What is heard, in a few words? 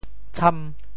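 "tsham5", Teochew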